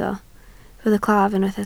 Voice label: "claf", Welsh